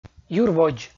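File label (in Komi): "юрводз" Komi, male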